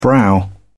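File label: "brow", British English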